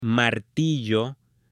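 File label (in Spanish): "MARTILLO", Spanish, male; AppLenguaje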